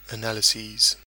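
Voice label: "analyses", British English; pronunciations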